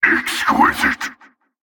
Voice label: Male Mid Combat Line, dialogue; NPC; Heist Cultist Mid Combat